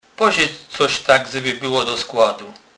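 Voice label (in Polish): "do skladu", Kurpie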